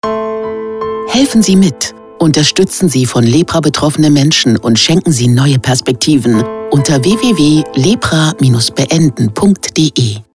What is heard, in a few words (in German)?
LEPRA Reminder, radiowerbung; deutsche lepra und tuberkulosehilfe